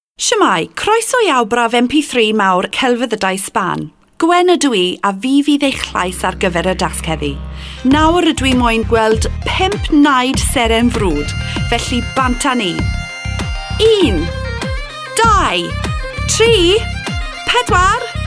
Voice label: Welsh demo